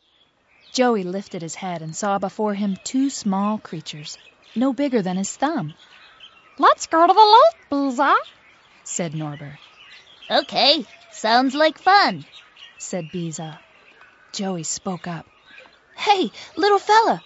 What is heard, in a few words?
character